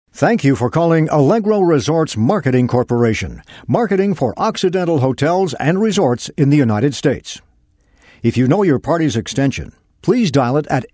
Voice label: Voice Prompt